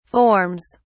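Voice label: "forms", English, British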